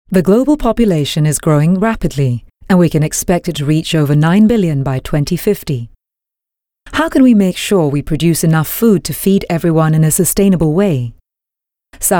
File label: Asian English; Grow Asia